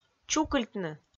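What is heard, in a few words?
"чукыльтны", Komi-Zyrian, female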